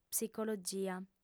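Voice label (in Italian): "psicologia", lingua sarda, fem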